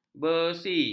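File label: "besi", Malay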